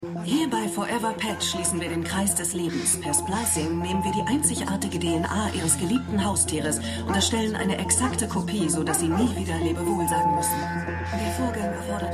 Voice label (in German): Sprecherin; Stimmproben; Watchmen